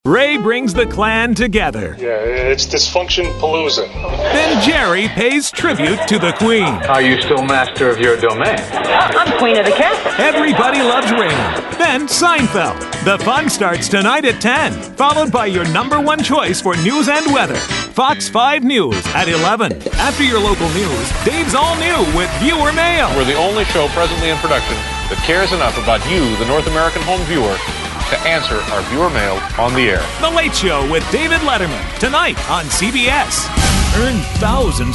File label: TV Promo